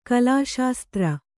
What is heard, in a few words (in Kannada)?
"ಕಲಾಶಾಸ್ತ್ರ", Kannada